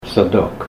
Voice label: trap, Ninilchik Russian